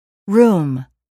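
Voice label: "room", English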